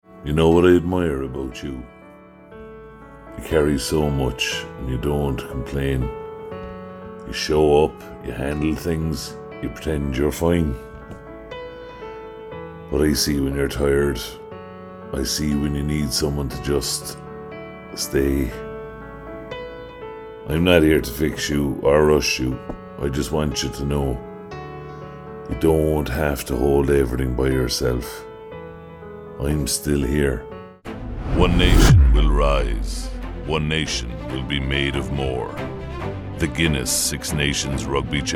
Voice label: commercial, Native Cork, with a deep friendly; Actors, Cork

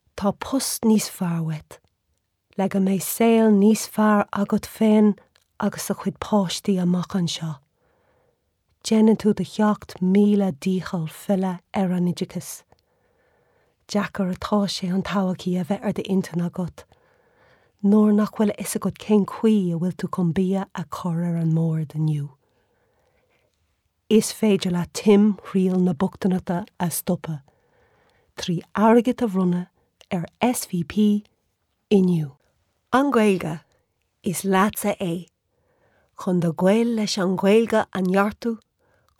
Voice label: irish

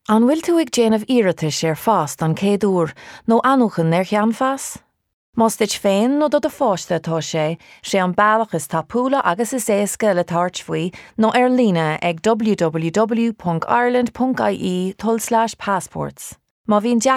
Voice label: irish language, female

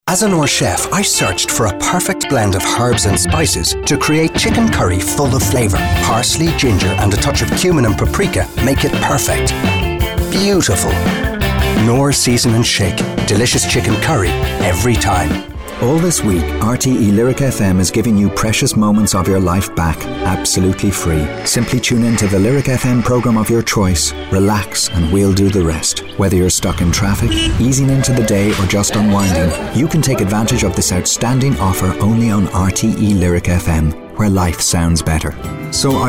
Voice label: Commercial; Commercial Demo

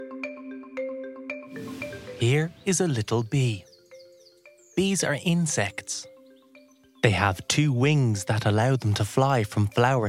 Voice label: eLearning explainer

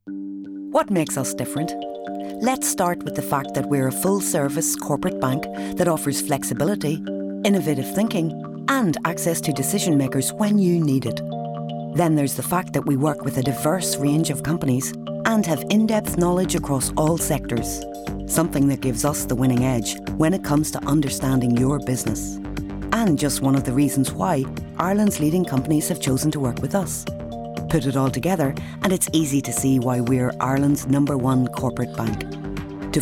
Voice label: commercial

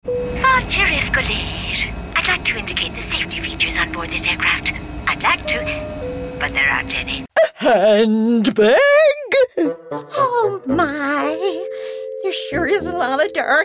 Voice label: animation

